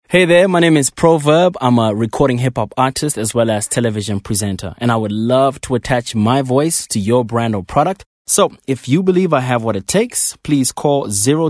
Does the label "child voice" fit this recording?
No